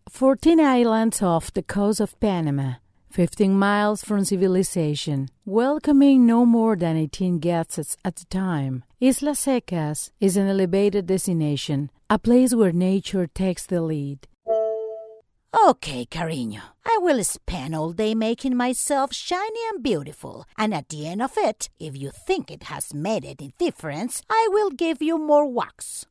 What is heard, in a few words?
English with Spanish Accent